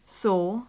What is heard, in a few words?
"saw", english